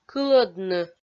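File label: "кылӧдны", Komi-Zyrian, female; kpv audio dict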